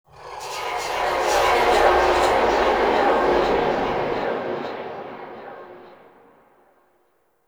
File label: Tenno Whisper; Warframe; Lotus Eaters; Ambience